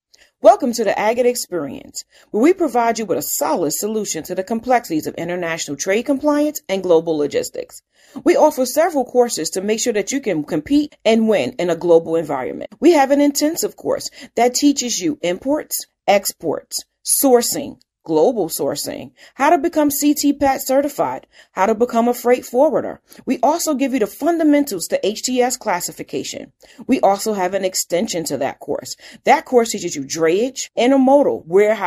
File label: Voiceover; Shaq